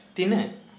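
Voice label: Santali, male, how much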